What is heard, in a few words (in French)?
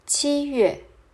Juillet Mandarin